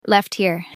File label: Female Left Here